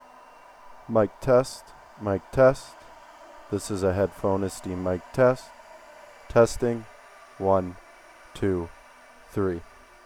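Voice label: Noisy Environment; A10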